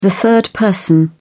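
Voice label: "third person", British English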